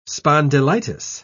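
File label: "spondylitis", British English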